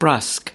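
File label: "brusque", US English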